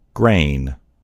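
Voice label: "grain", American English; gcompris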